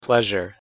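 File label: "pleasure", English pronunciation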